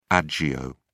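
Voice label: "agio", British English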